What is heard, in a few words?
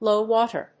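"low water", English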